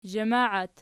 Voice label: "zhamaat", Archi

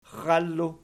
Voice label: "xxallu", Archi

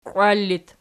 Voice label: "qiwal", Archi; qiwail

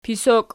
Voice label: "pisok", Archi

